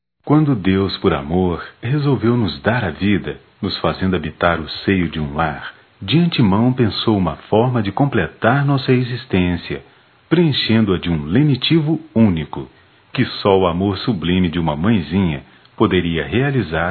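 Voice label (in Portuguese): Mãe evangelica, masculino; Dia das Maes; Telemensagens 2017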